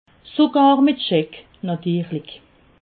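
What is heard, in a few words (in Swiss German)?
"scheck", Alsatian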